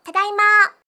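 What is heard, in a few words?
"tadaima", Japanese; gaisyutsu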